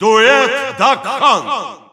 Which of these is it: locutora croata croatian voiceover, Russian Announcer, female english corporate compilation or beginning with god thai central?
Russian Announcer